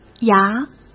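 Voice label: "牙", Mandarin Chinese; chinese flashcards; hsk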